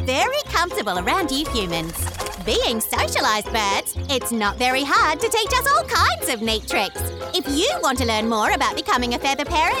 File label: character voice sqawks pet store